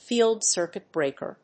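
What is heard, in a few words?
"field circuit breaker", English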